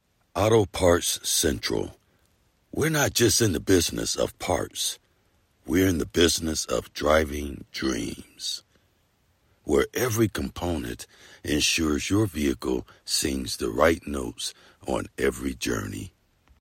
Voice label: Reliable Informative Authoritative, Auto Parts